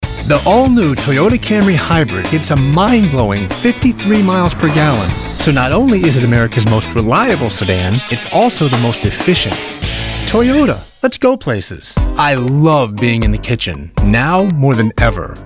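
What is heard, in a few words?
Commercial, Australian English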